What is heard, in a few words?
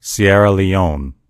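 "sierra leone", English; gcompris; geography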